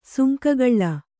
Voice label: "ಸುಂಕಗಳ್ಳ", kannada